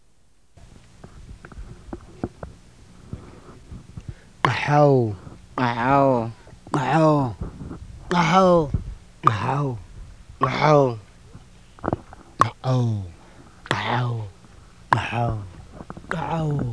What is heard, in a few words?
xoo strident